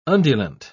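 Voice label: "undulant", British English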